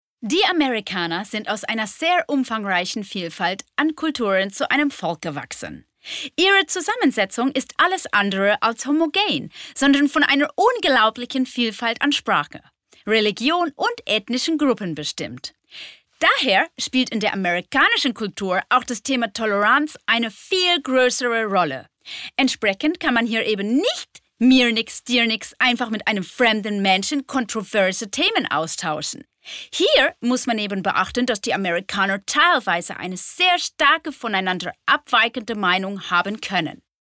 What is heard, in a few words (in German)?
US Akzent